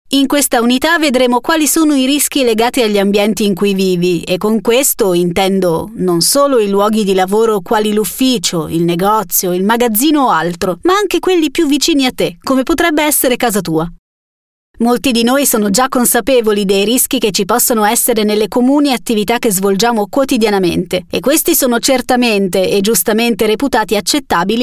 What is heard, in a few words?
italian voice over female elearning